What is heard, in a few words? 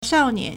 "shao4nian2", Chinese